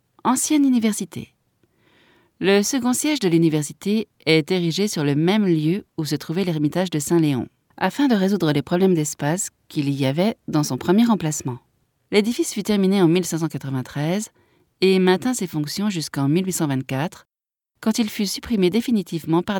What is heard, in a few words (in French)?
audioguia, frances; audioguia baeza